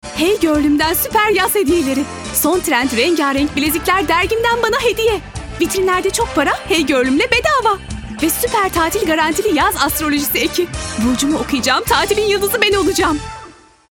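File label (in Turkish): neşeli tempolu, sicak; enerjik tempolu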